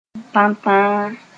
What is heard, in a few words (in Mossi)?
"tãntãa", moore; alone